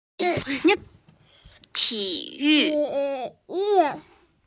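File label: "ti yu", Mandarin Chinese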